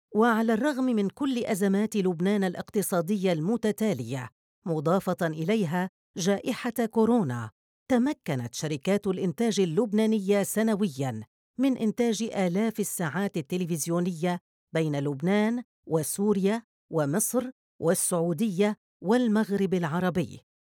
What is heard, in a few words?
Documentary Female